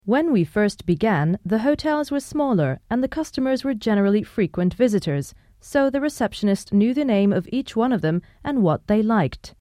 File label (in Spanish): locucion curso hotel ingles